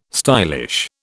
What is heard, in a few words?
"stylish", British